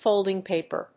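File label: "FOLDING PAPER", English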